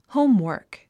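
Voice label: "homework", English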